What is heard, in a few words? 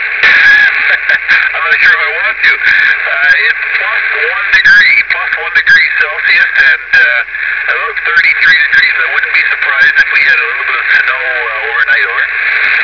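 weather report, VHF Radio Aurora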